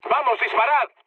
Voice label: attack position, mood med, speech; War Thunder